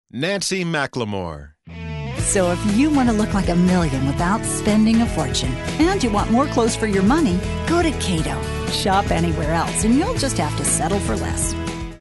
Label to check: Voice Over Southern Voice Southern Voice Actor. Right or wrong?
Wrong